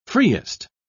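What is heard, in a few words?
"freest", British English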